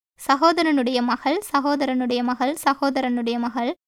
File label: Tamil